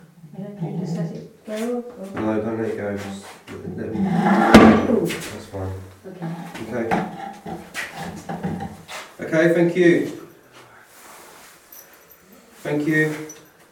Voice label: Spirit voice; paranormal investigations; secret locations